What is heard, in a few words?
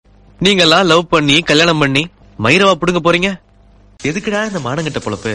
tamil dialogue; ringtone